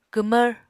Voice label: "kwmvr", apatani